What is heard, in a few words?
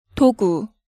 "Dogu", Korean